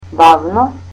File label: "slowly", Macedonian